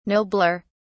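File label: "nobler", British English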